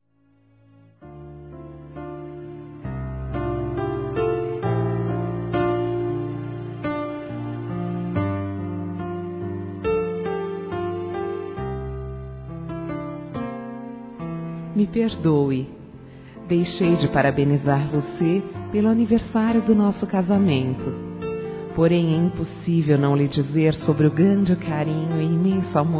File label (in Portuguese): fem; Telemensagens; Desculpas; ESQUECI O NOSSO AN. CASAMENTO; Telemensagens 2017